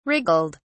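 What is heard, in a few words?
"wriggled", English, British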